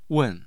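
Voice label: "wen4", Mandarin Chinese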